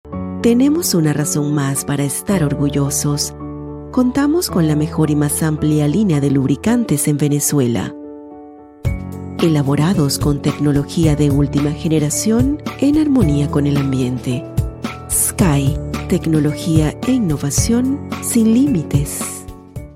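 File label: Spanish, female, commercial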